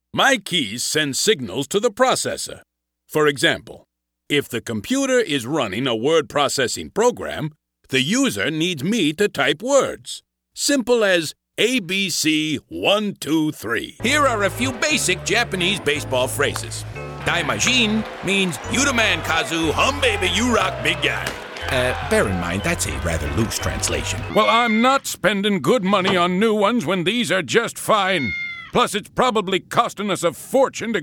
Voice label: men animation; Pleasantly authoritative, resonant, warm and friendly as well as wacky and silly.